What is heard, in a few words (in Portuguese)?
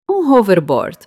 um hoverboard, Portuguese (European)